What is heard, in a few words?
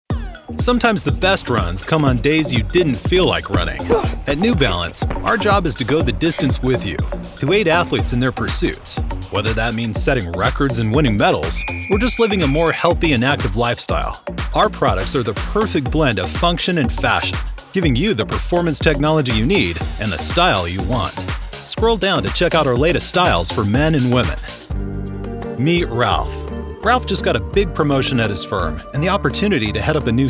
EXPLAINER